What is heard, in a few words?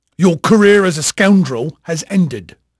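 English male, voice; Pirates Of The Caribbean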